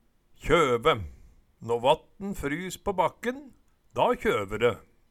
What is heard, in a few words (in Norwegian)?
kjøve, Numedalsmål